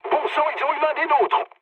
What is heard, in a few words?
aircraft destroyed mood med, speech fr